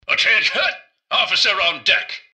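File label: hello, robot; rivetcity; mrgutsy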